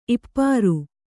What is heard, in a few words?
"ಈಪರಿ", Kannada